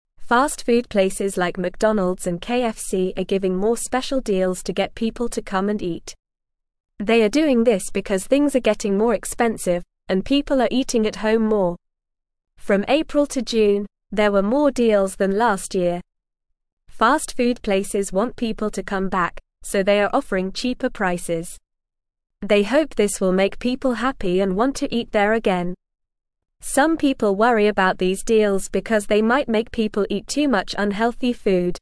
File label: English Beginner NORMAL Reading; Fast Food Places Offer Deals to Attract Customers